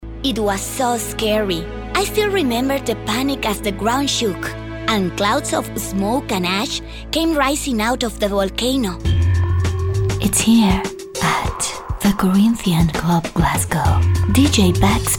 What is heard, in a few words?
english mexican voiceover